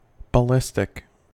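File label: "ballistic", US English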